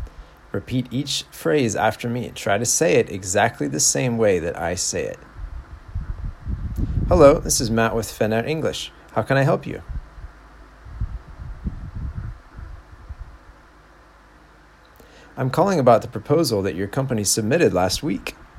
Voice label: Phone Shadowing